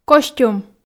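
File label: "костюм", Komi, female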